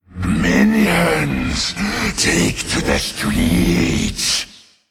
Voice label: Male Qiraji Play